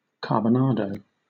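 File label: "carbonado" English, British accent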